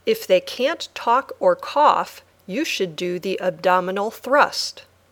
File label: Dictation